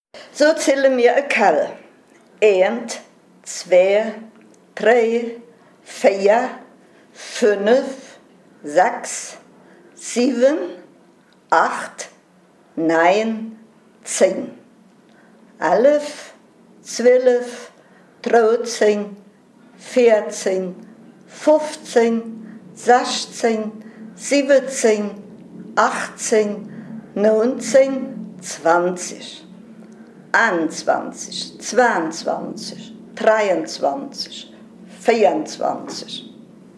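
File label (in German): zahlen, O-Ton Hunsrück; Kell am See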